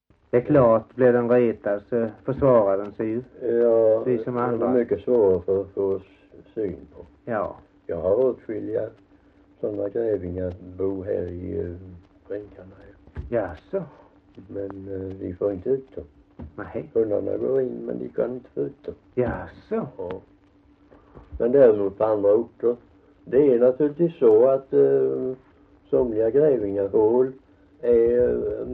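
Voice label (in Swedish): Alfshög Halland, dialekter; Folkminnen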